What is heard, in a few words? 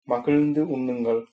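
bon appetit, tamil, male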